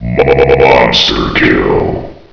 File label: score, announcer; hl1mp; XDM